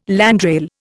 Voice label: "landrail", Indian